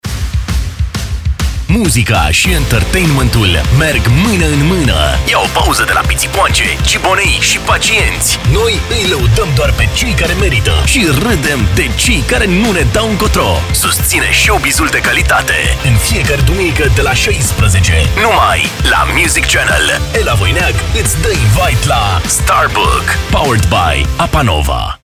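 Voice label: romanian male commercial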